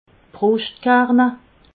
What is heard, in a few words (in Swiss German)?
"bruschtkerne", alsace